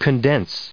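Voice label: "condensing", US English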